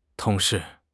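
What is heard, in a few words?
"tong2 shi4", Mandarin Chinese; HSK3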